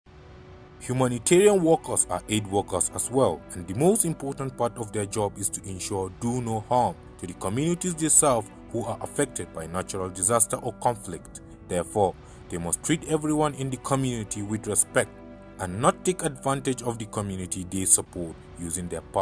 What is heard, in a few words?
radio jingle ENG